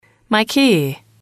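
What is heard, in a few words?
"key", English, female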